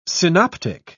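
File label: "synoptic", British English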